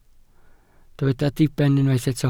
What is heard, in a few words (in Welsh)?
"diben", Welsh